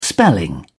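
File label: "spelling", English, British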